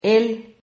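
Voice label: "él", Spanish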